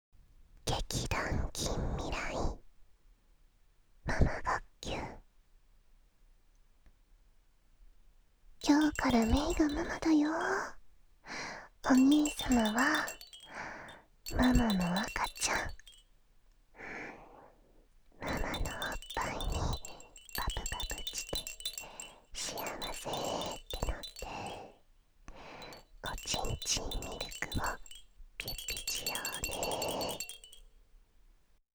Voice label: タイトルコール; ママ学級

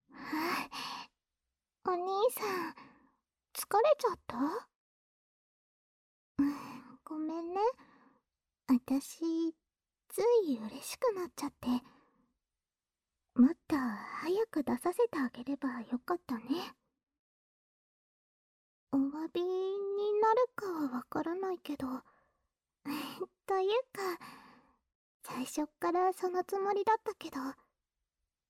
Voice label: ゆっくりピロートーク, ASMR

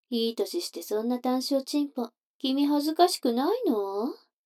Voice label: 女の子 台詞

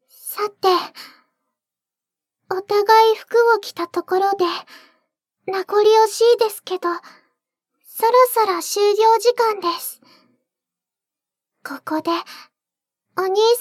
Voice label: お別れの挨拶, ASMR

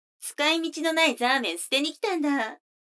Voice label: 女の子 台詞, ASMR

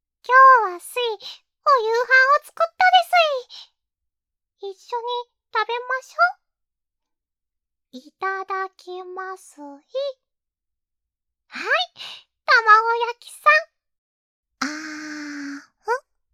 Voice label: 励ましボイス

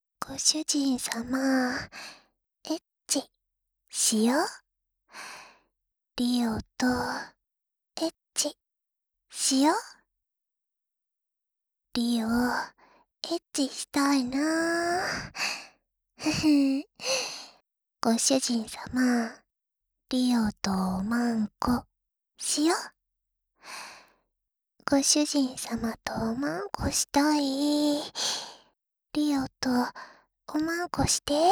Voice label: エッチしよ はにかみ, 左, バイノーラル; ハーレムオナサポ 4人のメイドがご主人様のオナニーをお手伝いするバイノーラル～文、千曜、名月、莉桜～

